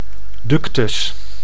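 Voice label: "ductus", Dutch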